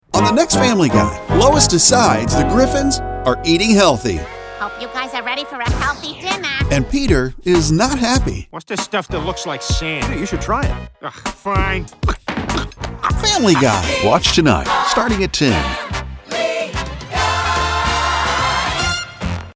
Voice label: Promo; Family Guy